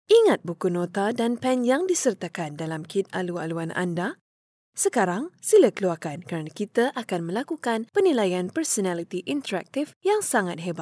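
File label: Malay, Malaysia, female; voice over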